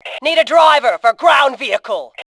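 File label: "need driver", female voice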